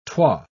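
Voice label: "twa", English, British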